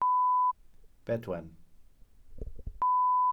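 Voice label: "bedwen", Welsh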